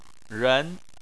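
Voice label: "ren", Chinese, tone 2; Chinese Surnames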